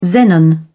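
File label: "xenon", British English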